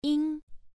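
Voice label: "ying1", Chinese pronunciation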